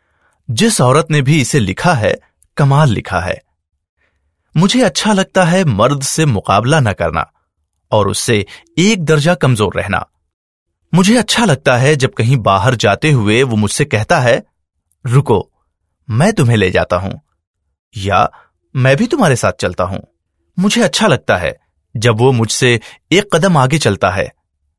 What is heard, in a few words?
Urdu male